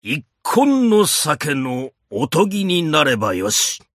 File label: voice, dubbing